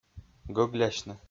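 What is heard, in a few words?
"гӧглясьны", Komi, male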